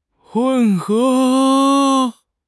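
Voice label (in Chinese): "混合", Chinese; HSK6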